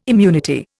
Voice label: "immunity", Indian